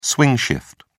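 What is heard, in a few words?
"swing shift", British English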